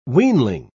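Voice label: "weanling", British English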